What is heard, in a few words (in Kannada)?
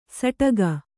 "ಸಟಗ", Kannada